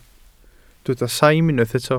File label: "saim", Welsh